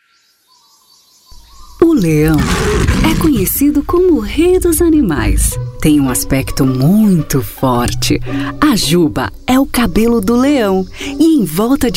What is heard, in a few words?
portuguese brazilian audiobooks